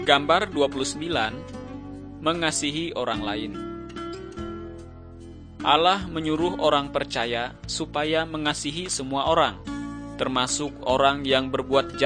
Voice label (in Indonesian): kabar baik good news bahasa indonesia